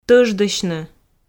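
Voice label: "тӧждысьны", Komi, female; audio dict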